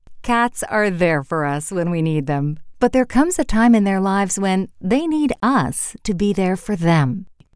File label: Warm Genuine